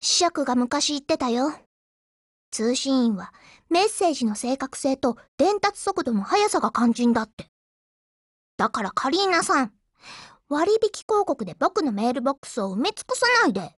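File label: DIALOGUE, Japanese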